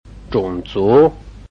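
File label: "zhong3zu2", Mandarin Chinese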